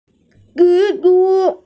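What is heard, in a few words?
"zhi1zhu1", Mandarin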